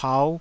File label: "pau5", taiwanese